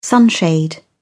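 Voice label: "sunshade", English, uk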